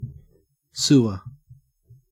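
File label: "sewer", Australian English; pronunciations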